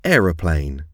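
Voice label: "aeroplane", English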